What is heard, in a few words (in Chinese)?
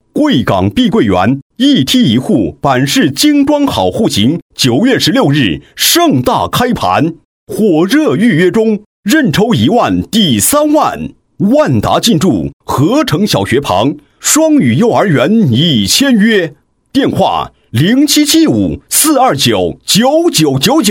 男99-广告; 碧桂园